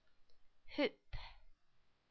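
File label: "hoop", English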